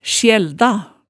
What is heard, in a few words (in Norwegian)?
"sjælda", hemsedalsmaalet